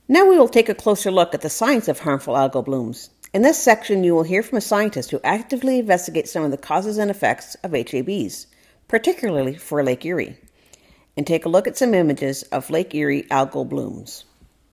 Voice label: narration intro